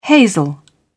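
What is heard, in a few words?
"hazel", British English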